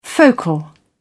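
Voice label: "focal", British English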